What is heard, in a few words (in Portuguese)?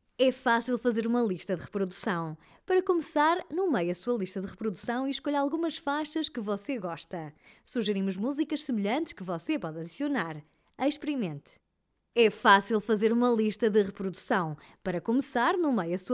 portuguese e-learning